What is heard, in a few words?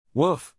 "woof", English, neural; animal sounds in english; Dogs; Stephen